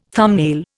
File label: "thumbnail", Indian